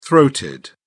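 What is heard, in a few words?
"throated", British English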